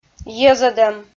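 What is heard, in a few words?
"йӧзӧдӧм", Komi, female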